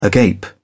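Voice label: "agape", British English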